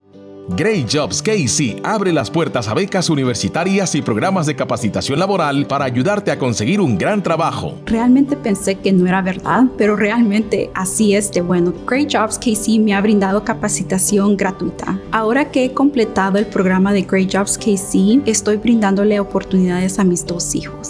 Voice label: 30sec Radio Spot; KC Scholars; great jobs kc